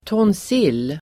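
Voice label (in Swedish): "tonsill", Swedish; Uttal: [tåns'il:]; tonsill substantiv, tonsil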